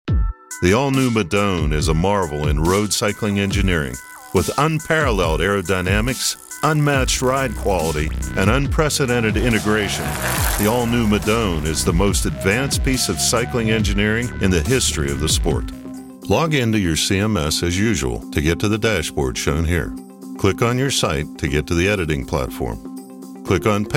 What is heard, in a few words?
USA